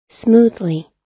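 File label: "smoothly", US English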